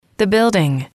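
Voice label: "building", English, female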